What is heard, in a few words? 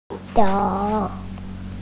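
"ju3 shou3", Mandarin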